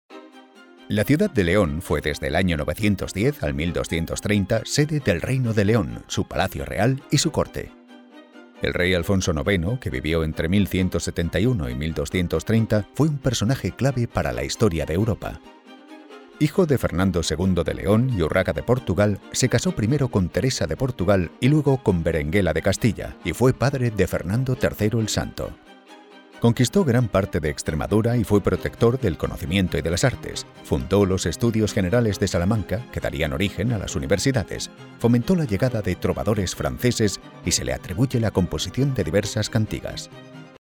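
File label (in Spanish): spanish audio guides, Español voice actor, Una voz grave; requiera transmitir seguridad; Audioguías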